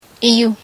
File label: shout, Ho